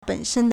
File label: "ben3shen1", Chinese